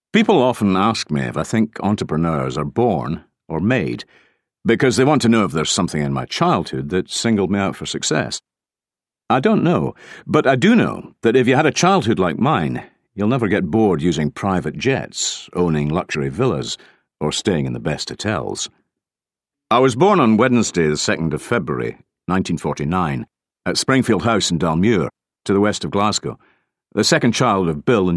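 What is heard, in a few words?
drama; home studios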